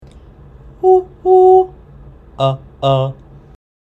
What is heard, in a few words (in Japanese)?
声量不足